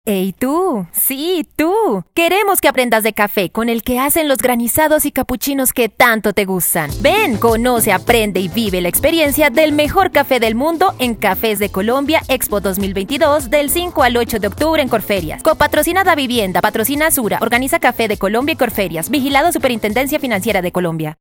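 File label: Colombian Spanish; generico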